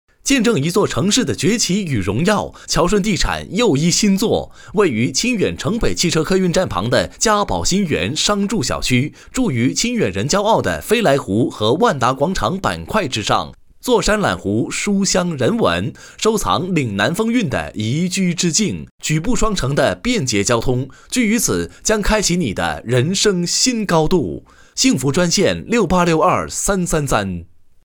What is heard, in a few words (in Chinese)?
房地产广告